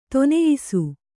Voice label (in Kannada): "ಧನ್ಯಾಸಿ", kannada